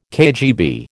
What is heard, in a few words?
"KGB", American